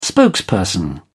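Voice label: "spokesperson", British English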